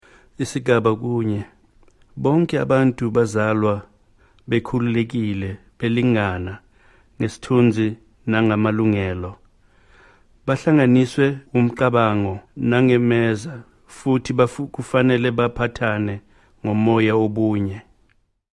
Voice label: Zulu